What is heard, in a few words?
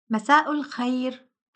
Arabic Good evening; Greetings